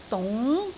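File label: "dung2", Cantonese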